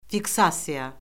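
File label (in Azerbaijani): "fiksasiya", Azerbaijani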